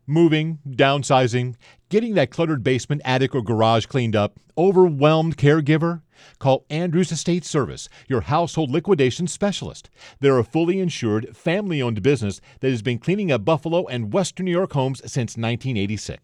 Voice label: senior radio commercial; andrews estate service; wben 930 am; buffalo